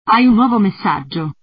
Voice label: Mail Italian